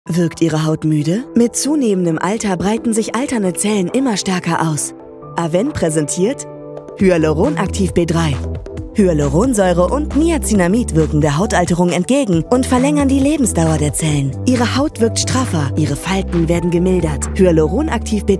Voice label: TV SPOT; AVENE HYALURON ACTIV B3